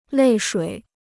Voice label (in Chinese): "泪水", Chinese